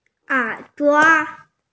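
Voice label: "er3duo5", Mandarin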